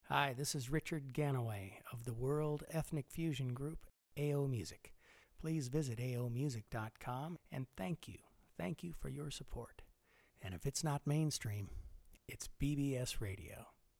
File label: bbs radio promo